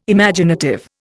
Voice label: "Imaginative", Indian